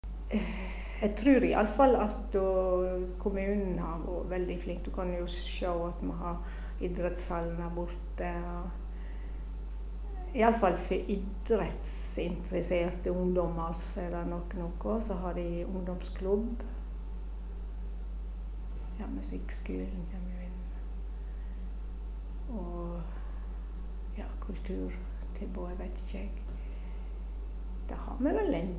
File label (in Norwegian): Eidfjord, dialekt